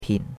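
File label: "pin3" Mandarin Chinese syllable, third tone; Cours de Chinois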